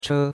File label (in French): "che1", chinois; Cours-de-Chinois